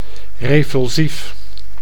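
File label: "revulsief", Dutch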